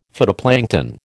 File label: "phytoplankton", American